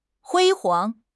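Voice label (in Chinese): "辉煌", Mandarin Chinese; HSK6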